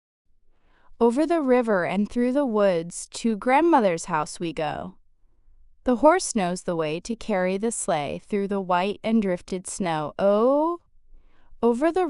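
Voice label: female US, classic rhymes; Over the River and Through the Woods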